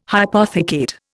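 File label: "hypothecate", Indian English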